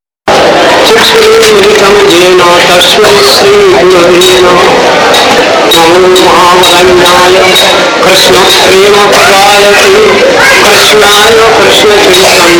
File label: Bengali & English class; Srila Vamana Maharaja